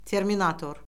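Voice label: "terminator", Azerbaijani; Orfoqrafiya Lüğəti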